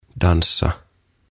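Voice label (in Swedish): "dansa", Swedish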